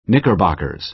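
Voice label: "knickerbockers", American English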